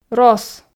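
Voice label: "рос", Komi, female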